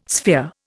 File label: "sphere", Indian